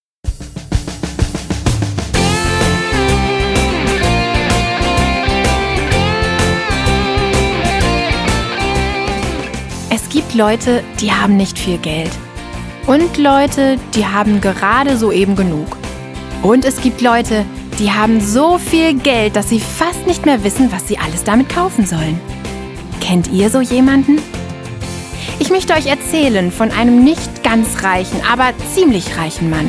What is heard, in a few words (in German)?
hoerspiel